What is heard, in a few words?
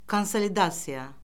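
"konsolidasiya", Azerbaijani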